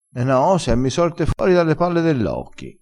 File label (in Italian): "palle dell occhi", crespinese